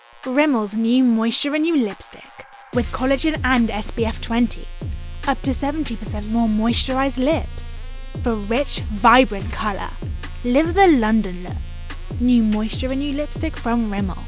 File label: Commercial; Rimmel